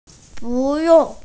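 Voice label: "bu4yong4", Mandarin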